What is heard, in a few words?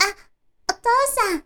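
"otousan", Japanese, girl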